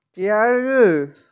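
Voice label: "jieri", Mandarin Chinese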